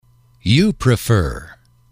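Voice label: "you prefer", English, male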